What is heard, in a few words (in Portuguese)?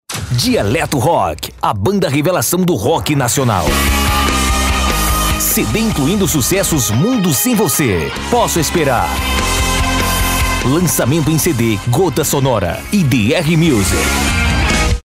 spot